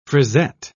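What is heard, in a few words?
"frisette", British English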